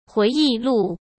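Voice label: "回忆录", Mandarin Chinese; chinese-flashcards; hsk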